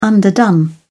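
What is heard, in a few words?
"underdone", British English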